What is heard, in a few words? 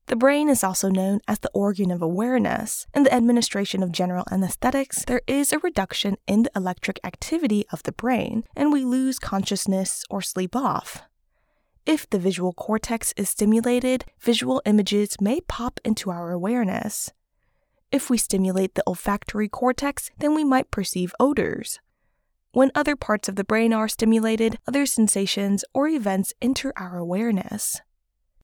foreigner